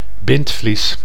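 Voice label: "bindvlies", Dutch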